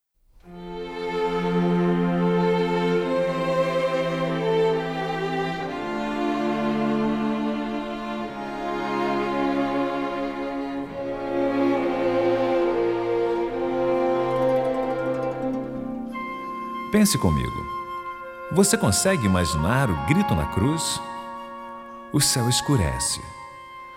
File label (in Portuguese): Narração